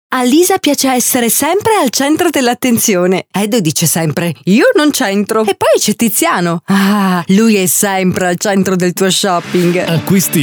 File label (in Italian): Commerciale